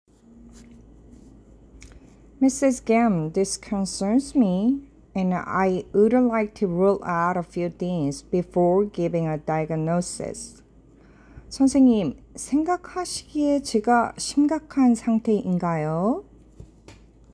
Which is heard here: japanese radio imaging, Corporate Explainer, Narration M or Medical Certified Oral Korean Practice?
Medical Certified Oral Korean Practice